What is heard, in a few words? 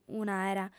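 "un'àera", Sardinian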